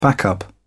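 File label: "backup", British English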